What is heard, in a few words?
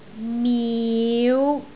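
"miu5", Cantonese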